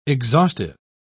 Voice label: "exhaustive", pronounce